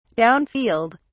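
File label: "downfield", British English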